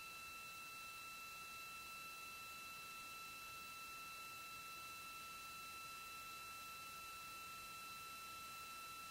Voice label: launch integrity voice check; artemis ii